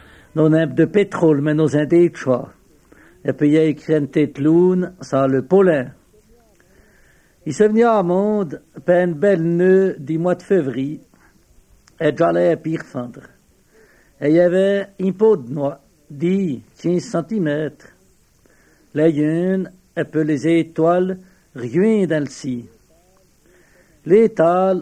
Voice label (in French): Recit patois